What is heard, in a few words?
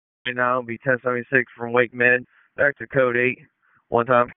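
Sheriff's Office Dispatch 1, p25; WSO DISP 3; wake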